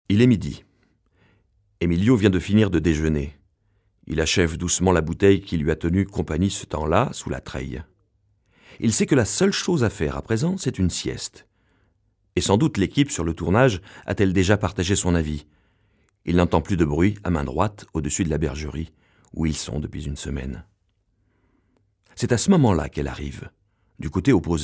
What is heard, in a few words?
narr; Enregistrements dans la cabine speak